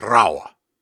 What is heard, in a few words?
Syllables and Imaginary Words, Hard, Viking Vocal